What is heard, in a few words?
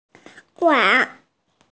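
"wan2", Mandarin Chinese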